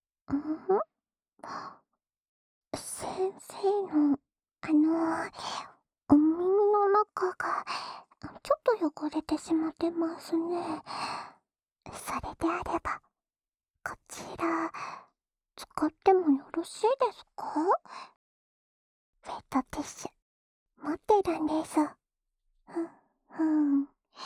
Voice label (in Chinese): 安眠用 湿纸巾按摩（右耳）, ASMR